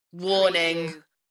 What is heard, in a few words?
UK female, Warning; Tech / Sci-fi; beanstalk audio